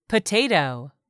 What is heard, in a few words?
"POTATO", English; 3000 words